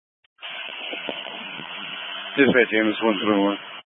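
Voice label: Fire Dispatch, Scanner audio recording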